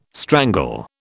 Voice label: "strangle", British